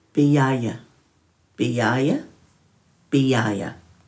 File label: "biyay", Dhurga